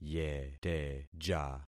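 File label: "yeteja", concatenated syllables; Affixing experiment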